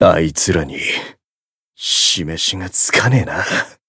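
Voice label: win barely, Japanese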